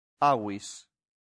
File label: "avis", latin; santillana